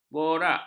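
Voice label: "borak", Malay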